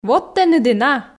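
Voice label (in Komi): "вот тэныд и на", Komi, female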